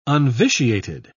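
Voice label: "unvitiated", English, British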